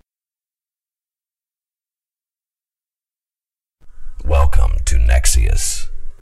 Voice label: welcome, announcer, male